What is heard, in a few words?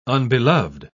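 "unbeloved", British English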